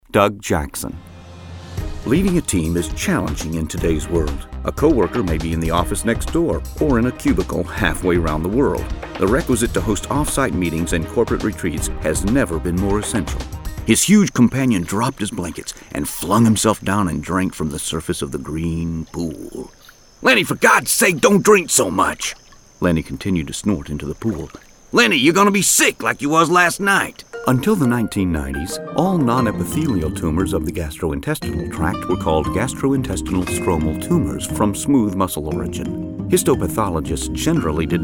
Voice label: Narration